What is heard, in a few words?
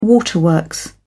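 "waterworks", British English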